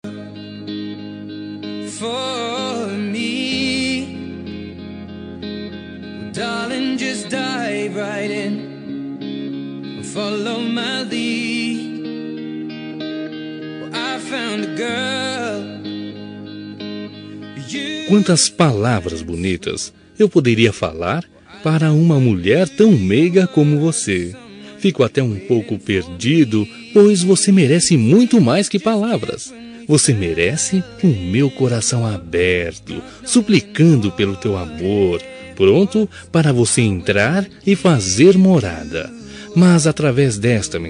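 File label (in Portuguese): romantica masculina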